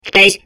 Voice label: babble; Portal 2

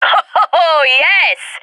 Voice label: cheer; Counter Strike Model Pack; CS:GO

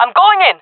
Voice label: attacking, fem; player vo jungle fem

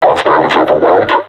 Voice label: taunt, vo combine suppressor; Half Life Alyx

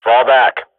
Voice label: radio bot fallback, swat; Counter Strike Model Pack; CS:GO

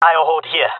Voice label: waiting here, jungle male; player vo; Counter Strike Model Pack; CS:GO

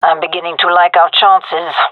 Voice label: round winning, gendarmerie fem; vo; agents

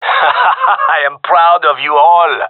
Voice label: round won, gendermerie male; vo

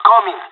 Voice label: on my way, pirate; Counter Strike Model Pack; CS:GO

